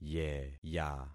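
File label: "yeya", concatenated syllables; Affixing experiment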